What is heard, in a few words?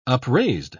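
"upraised", British English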